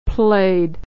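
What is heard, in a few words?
"played", American English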